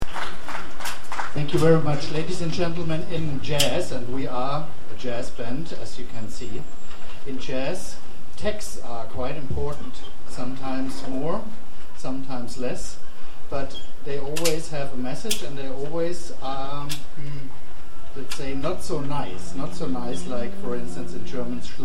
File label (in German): Ansage